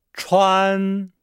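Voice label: "chuan", Chinese; Nihao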